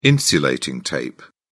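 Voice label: "insulating tape", British English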